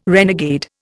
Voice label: "renegade", Indian

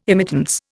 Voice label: "immittance", Indian

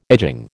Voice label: "edging", American

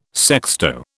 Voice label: "sexto", British

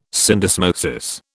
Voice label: "syndesmosis", British

